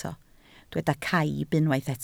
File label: "caib", Welsh